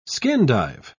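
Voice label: "skin-dive", British English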